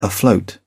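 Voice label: "afloat", British English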